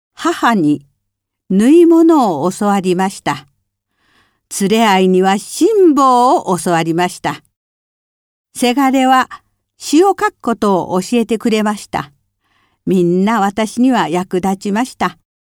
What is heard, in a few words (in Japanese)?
独白